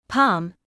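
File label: "palm", British English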